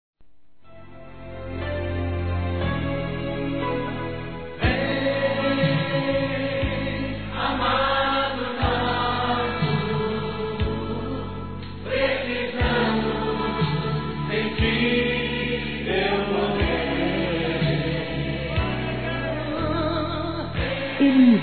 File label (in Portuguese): EVANGELICA-F; Telemensagens; Natal e Ano novo; fem